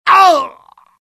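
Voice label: death, dialogue generic Italian; mohaa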